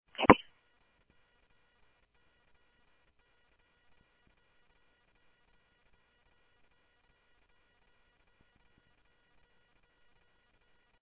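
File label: Mesa Police Department, scanner audio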